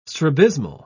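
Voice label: "strabismal", British English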